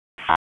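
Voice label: Dispatch 1, P25; WSO DISP 1; wake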